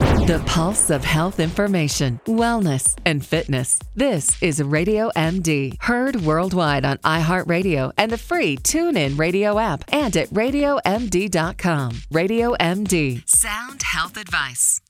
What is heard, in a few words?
Promo; RadioMD Production